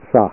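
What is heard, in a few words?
"sa", Japanese; Learn Kana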